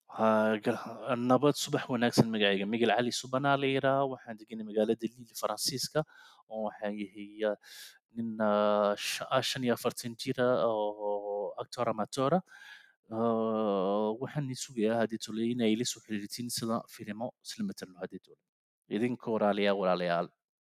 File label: Somali